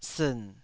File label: "siin3", Taiwanese